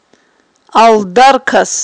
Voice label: "алдаркас", aghul